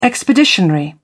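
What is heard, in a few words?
"expeditionary", English, British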